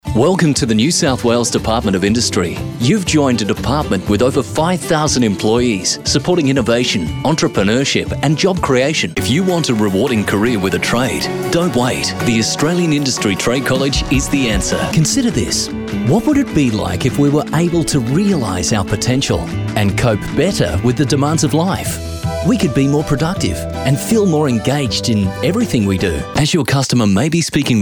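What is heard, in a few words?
Explainer eLearning Corporate narration reads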